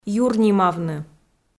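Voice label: "юрнимавны", Komi, female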